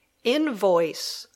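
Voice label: "invoice", English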